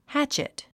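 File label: "hatchet", English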